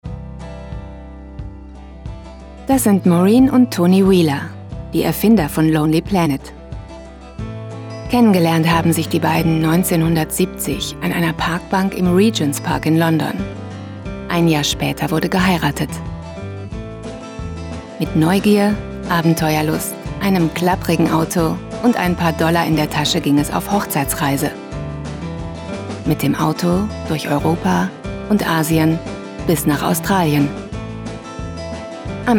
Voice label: Doku; lonelyplanet

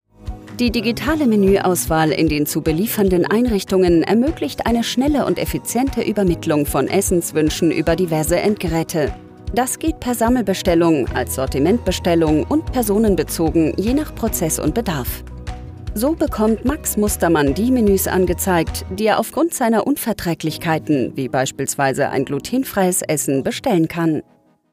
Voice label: unternehmensfilm ausschnitt schnell erklaerend sympathisch; sanalogic

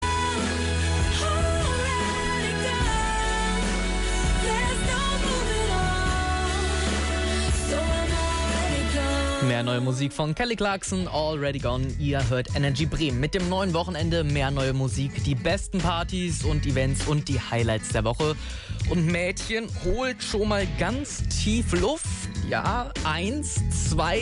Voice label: musikmoderation

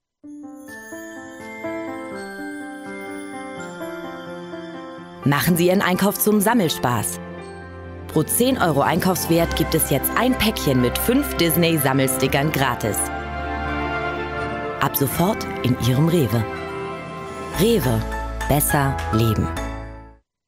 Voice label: werbung sammelaktion; rewe

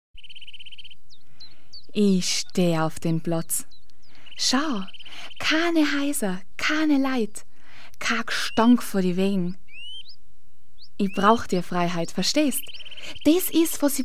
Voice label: oesterreicherisch

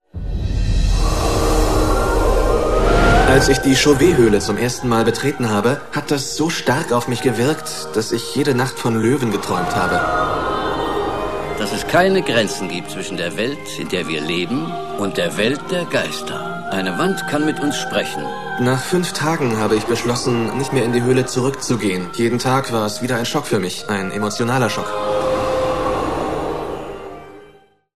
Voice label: voice over; Sprecher in Berlin; Muttersprache Deutsch; die hoehle der vergessenen traeume

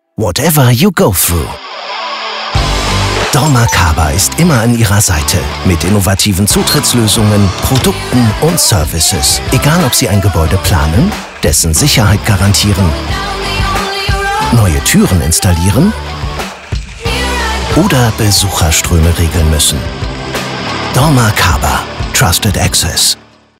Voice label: imagefilm; dormakaba

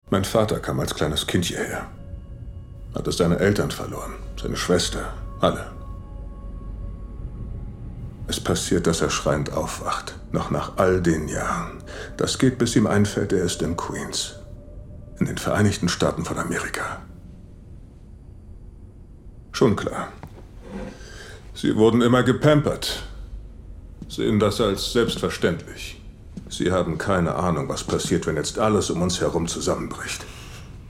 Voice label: synchron; mckinley belcher iii; zero day

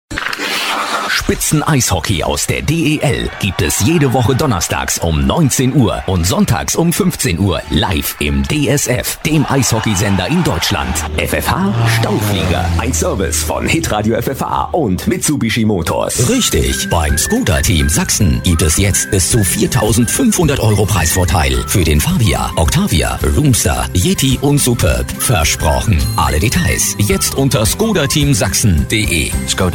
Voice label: werbedemo

